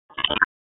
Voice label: Police Northwest District Tac 1; Raleigh; wake

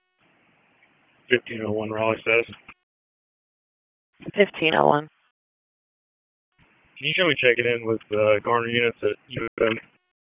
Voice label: Police Southeast District Disp, p25; Raleigh

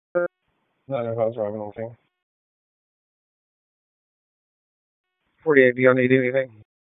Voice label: PS TAC, p25

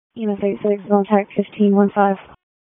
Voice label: PS TAC, p25

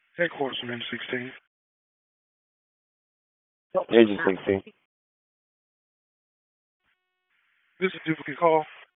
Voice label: RFD HQ DISP, P25 recordings

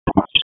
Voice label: Police Tac, p25; wake

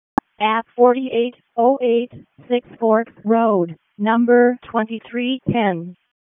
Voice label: EMS ALERT, p25; wake

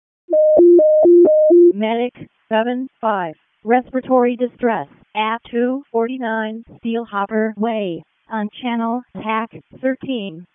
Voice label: EMS ALERT

